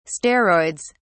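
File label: "steroids", British English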